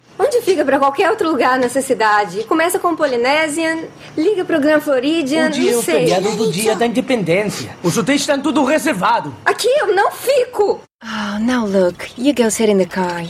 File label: brazilian voice, character